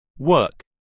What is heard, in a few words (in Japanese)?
"work", イギリス英語